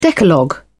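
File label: "decalogue", English, British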